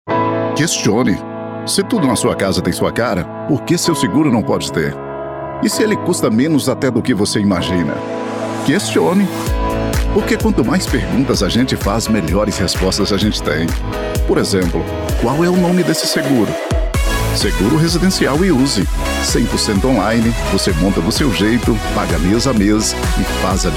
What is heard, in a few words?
portuguese brazilian automotive